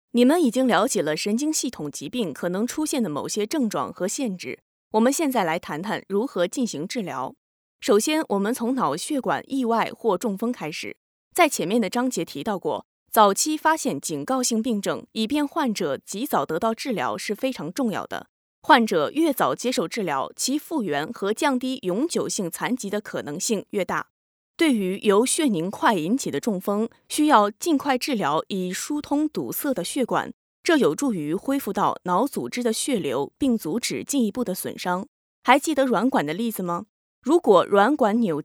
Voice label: Chinese Mandarin Female